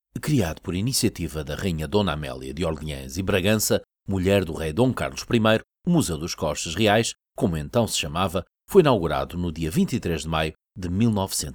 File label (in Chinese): 外籍葡萄牙语男 叙述 narration